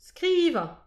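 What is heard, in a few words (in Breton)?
"skrivan", Breton; petit precis de prononciation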